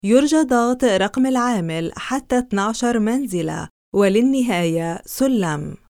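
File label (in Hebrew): Arabic, קריינות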